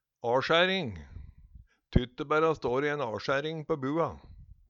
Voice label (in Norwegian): "asjæring", Numedalsmål